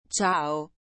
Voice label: "Ciao" Italian